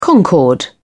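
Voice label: "concord", British English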